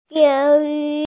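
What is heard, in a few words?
"e4yu2", Mandarin Chinese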